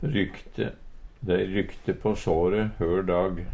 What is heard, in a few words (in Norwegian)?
rykte, Numedalsmål